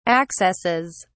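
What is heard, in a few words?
"accesses", British English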